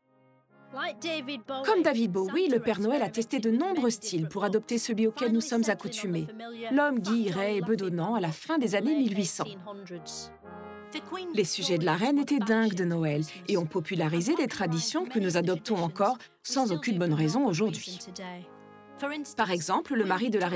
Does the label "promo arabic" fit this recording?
No